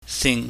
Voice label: "sing", Chinese

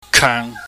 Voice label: "keung", chinese

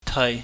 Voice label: "teui", Chinese

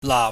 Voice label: "laap", chinese

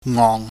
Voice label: "ngong", Chinese

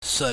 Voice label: "seut", pingyam

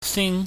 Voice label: "sing", Chinese

